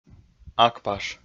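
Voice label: "акпаш", Komi, male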